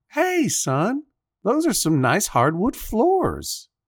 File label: Dad complimenting your hardwood floors; Foley Library; People Sounds; Judge John Hodgman